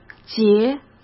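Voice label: "节", Mandarin Chinese; chinese flashcards; hsk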